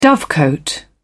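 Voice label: "dovecote", British English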